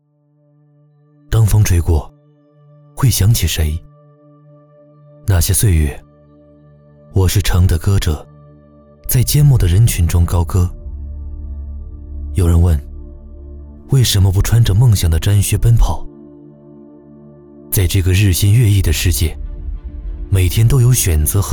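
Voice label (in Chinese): 国语 男声 走心旁白 素人; 当风吹过，会想起谁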